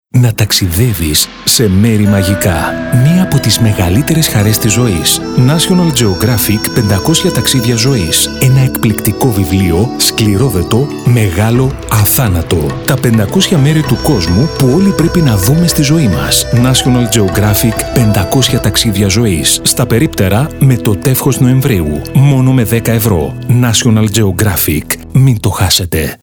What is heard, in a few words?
Greek Male voice; Demo National Geographic